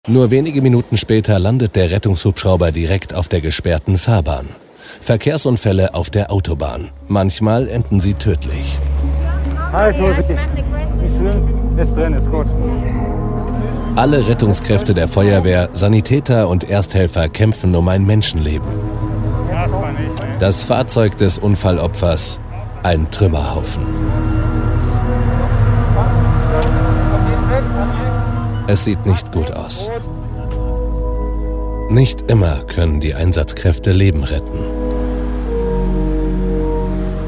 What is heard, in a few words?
German documentaries